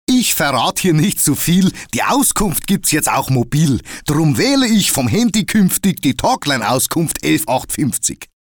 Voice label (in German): Bayer, Dialekte